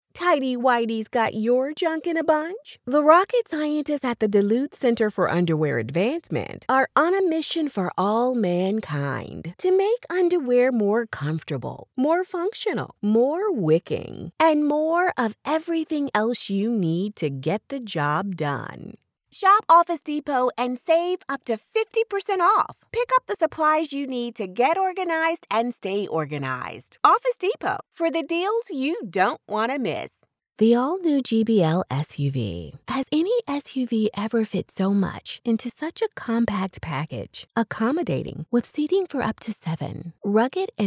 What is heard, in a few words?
Commercial Voice Only